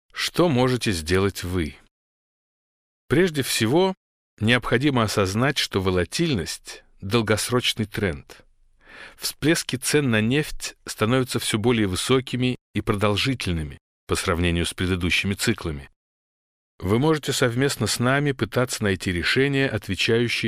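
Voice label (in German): russisch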